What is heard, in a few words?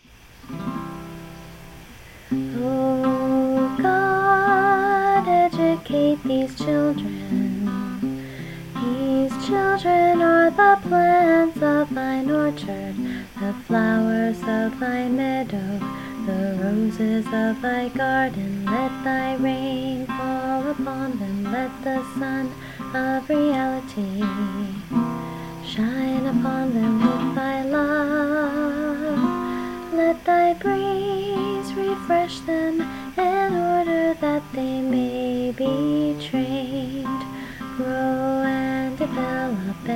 Responsibility Prayer